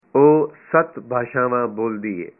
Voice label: punjabi she speaks seven languages